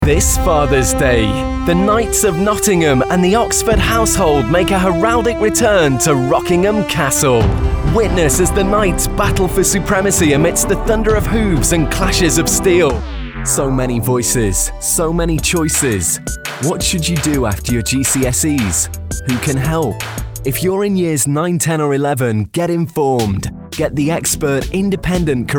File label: Commercials